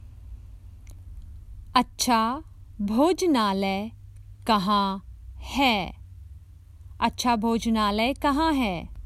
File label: Common Hindi Phrases for travel Where is a good restaurant